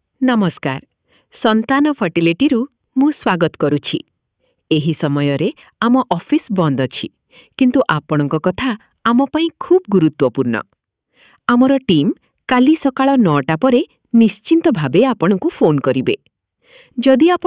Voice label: greeting afterhours, IVR